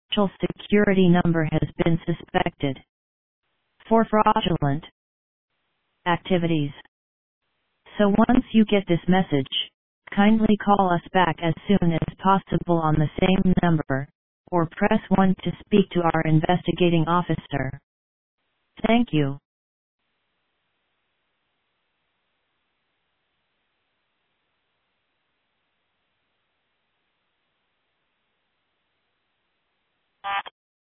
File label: Voicemail